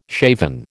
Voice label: "shaven", American